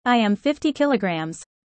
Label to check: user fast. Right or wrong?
Right